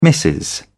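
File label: "missus", British English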